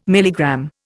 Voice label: "milligram", Indian